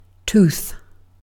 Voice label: "tooth", UK